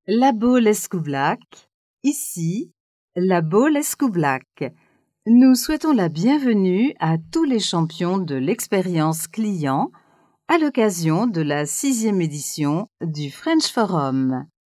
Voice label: french forum